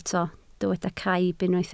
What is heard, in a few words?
"caib", Welsh